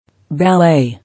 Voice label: "Ballet", English